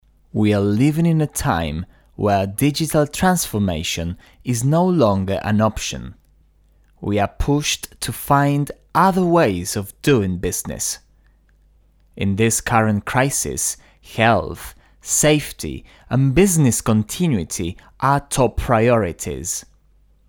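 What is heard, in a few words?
Spanish Latin American male English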